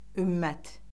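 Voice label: "ümmət", Azerbaijani; Orfoqrafiya Lüğəti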